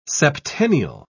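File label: "septennial", British English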